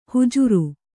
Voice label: "ಹುಜುರು", Kannada

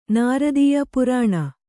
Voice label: "ನೃತ್ಯ", kannada

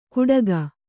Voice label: "ಕುಟಕ", kannada

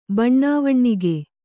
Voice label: "ಬಣ್ಣವಣ್ಣಿಗೆ", Kannada

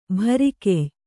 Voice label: "ಭರಿಕೆಯ್", kannada

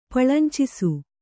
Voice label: "ಪಳಂಚಿಸು", Kannada

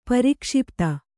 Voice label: "ಪ್ರಕ್ಷಿಪ್ತ", kannada

